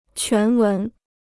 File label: "全文", Chinese